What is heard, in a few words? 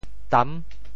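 "tam6", Teochew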